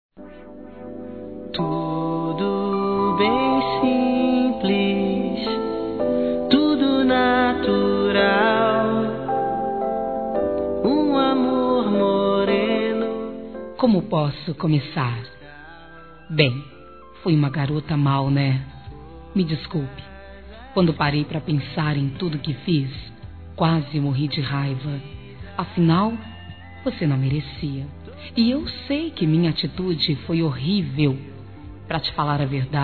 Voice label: promete que vai me desculpar f, Telemensagens; Desculpas; fem; Telemensagens 2017

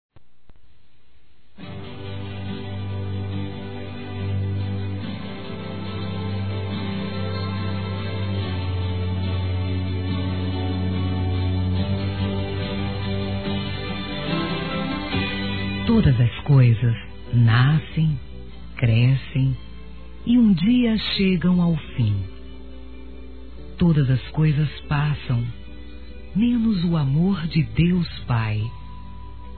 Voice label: Evang. Apoio f, Telemensagens Gospel fem; Telemensagens 2017

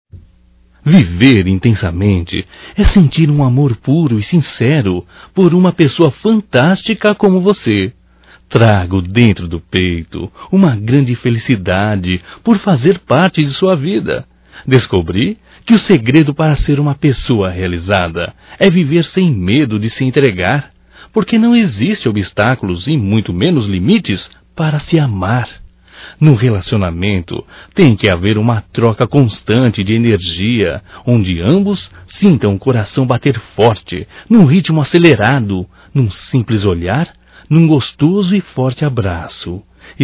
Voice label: Romântico Masculino, Telemensagens; Românticas

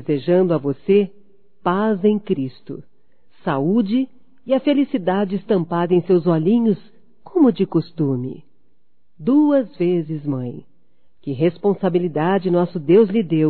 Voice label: Aniversário Feminino, Telemensagens

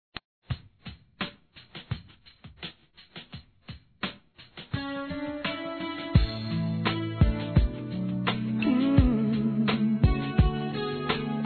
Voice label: SUAVE M, Dia Dos namorados; Telemensagens; masc; Telemensagens 2017

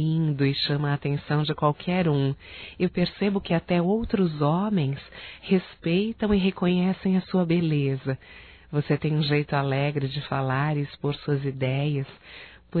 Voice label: QUERO TE CONHECER MELHOR (F), Telemensagens Românticas fem

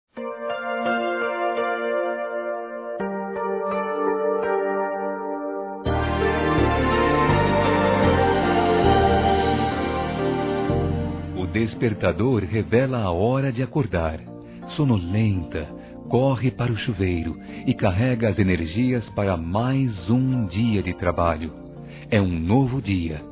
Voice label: SECRETÁRIA M, Telemensagens; Homenagens; masc

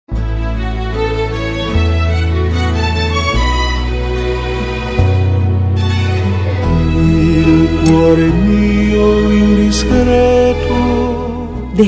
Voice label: SAUDADE MOMENTOS FEM; Telemensagens; Saudades; ROM SAUDADE MOMENTOS

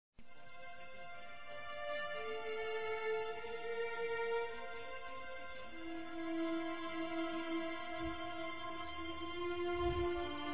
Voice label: EVANGÉLICO M, Telemensagens, masc; Telemensagens 2017

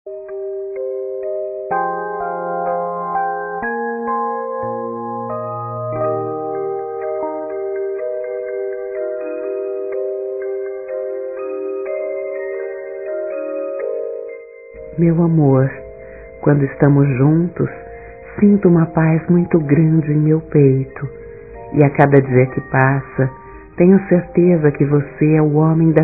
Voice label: EVANGÉLICA F, fem; Dia Dos namorados; Telemensagens 2017

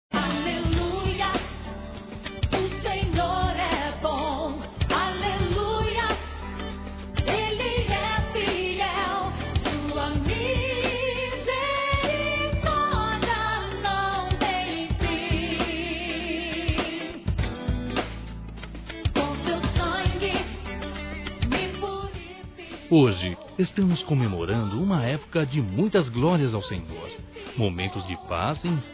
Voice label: NATAL E ANO NOVO PARA AMIGA, masc; Misto

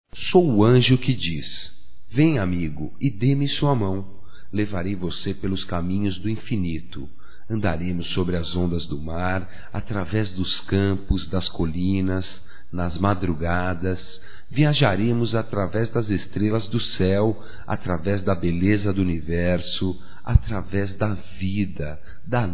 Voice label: AMIZADE, masc; Amizades; Telemensagens 2017

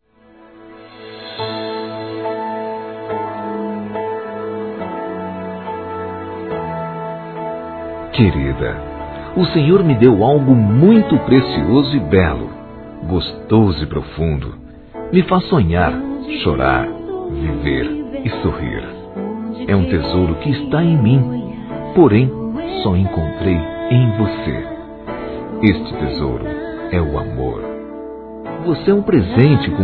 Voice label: DECLARAÇÃO A ESPOSA, Telemensagens Gospel masc

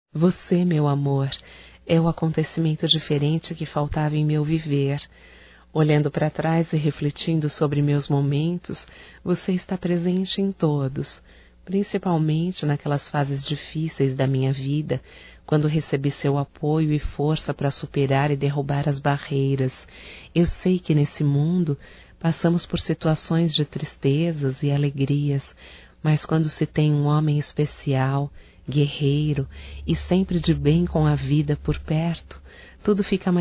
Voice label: ROMANTICA(F), Românticas, fem

